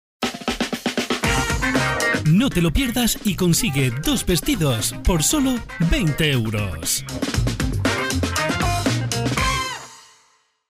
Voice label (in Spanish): ESP VESTIDOS; HUMANA